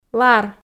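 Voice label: "лар", Komi, female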